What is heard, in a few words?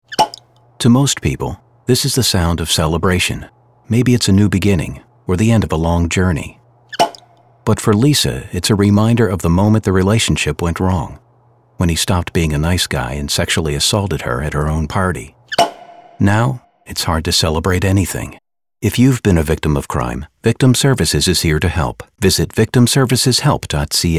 Radio; Newfoundland; Victim Services; Cork Pop